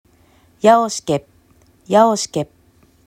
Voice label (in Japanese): "ヤオㇱケㇷ゚", アイヌ語; yáoskep; アイヌ語クイズ; ヤ ya・網 オㇱケ oske・を編む ㇷ゚ p・もの